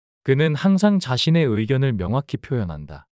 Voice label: 그는 항상 자신의 의견을 명확히 표현한다 문장 남자

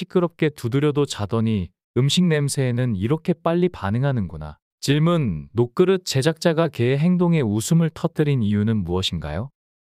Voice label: 놋그릇을 만드는 사람 과 그의 개 이야기 남자

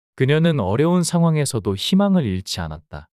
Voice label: 문장 남자; 그녀는 어려운 상황에서도 희망을 잃지 않았다

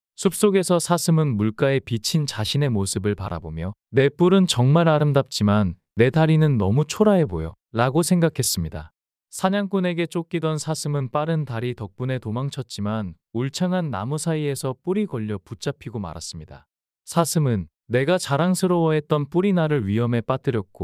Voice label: 이야기 남자; 사슴과 교훈

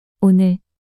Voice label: "오늘" 단어 여자

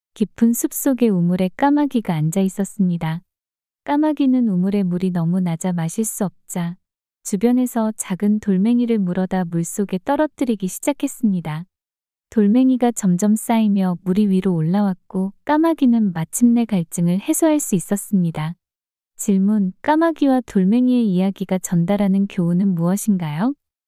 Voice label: 까마귀와 돌멩이 이야기 여자

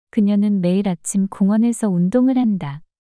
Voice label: 문장 여자; 그녀는 매일 아침 공원에서 운동을 한다

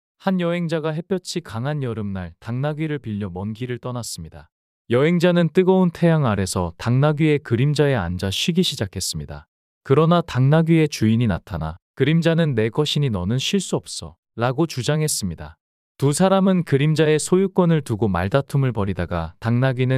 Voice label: 당나귀와 그림자 이야기 남자

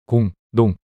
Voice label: "공농" 낱소리 남자

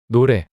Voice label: "노래" 단어 남자

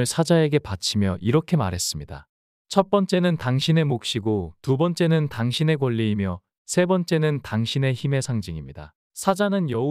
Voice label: 사자와 여우와 당나귀 이야기 남자

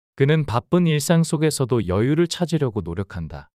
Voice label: 문장 남자; 그는 바쁜 일상 속에서도 여유를 찾으려고 노력한다

